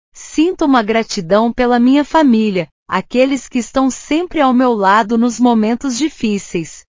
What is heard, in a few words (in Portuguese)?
"gratidão", portugues